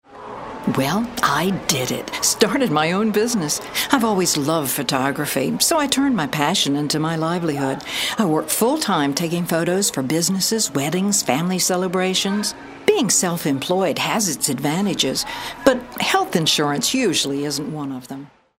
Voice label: older mature

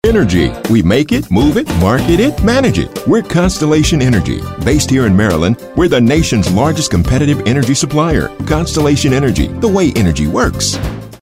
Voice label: friendly

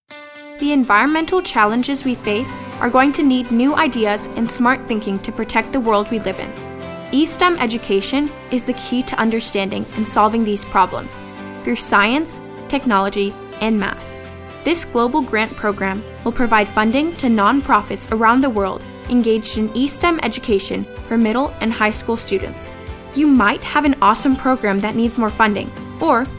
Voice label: narrative

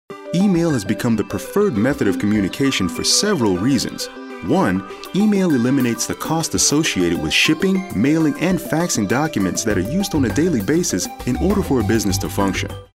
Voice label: narrative

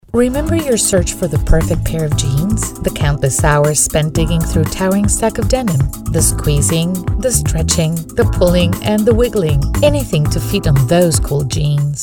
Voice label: Friendly

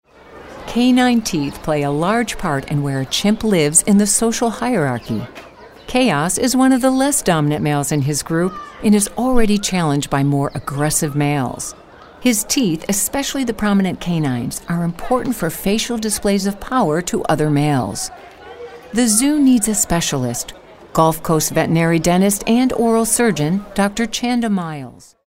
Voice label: storyteller

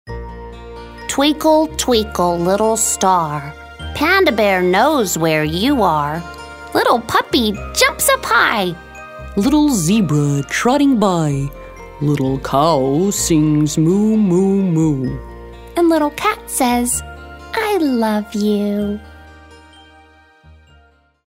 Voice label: storyteller

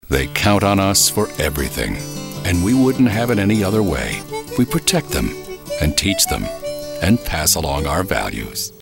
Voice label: folksy

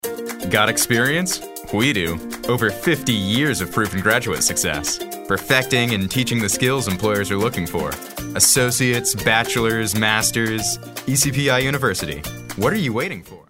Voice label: upbeat

